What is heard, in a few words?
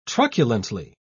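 "truculently", British English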